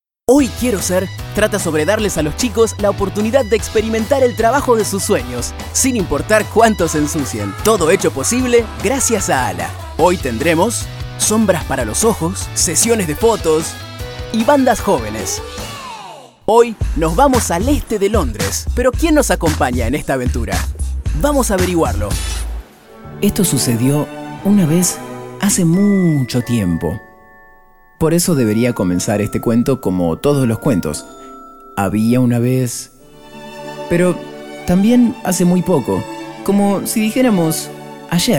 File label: Argentinian Spanish Informal Narration